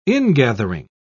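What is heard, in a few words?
"ingathering", British English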